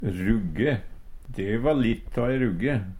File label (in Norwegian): rugge, Numedalsmål; eit stort kvinnfolk